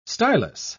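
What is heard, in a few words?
"stylus", English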